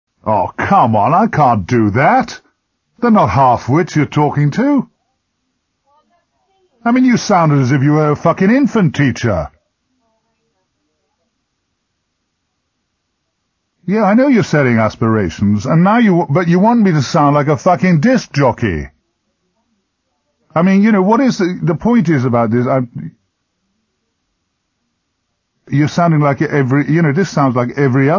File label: Commercial Voiceover Outtakes